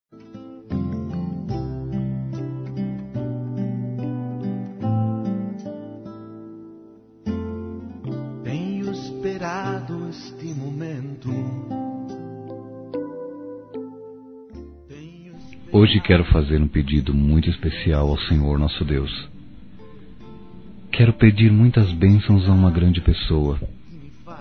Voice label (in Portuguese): Dia das Mães Religiosa Masculino; Telemensagens; Telemensagens 2017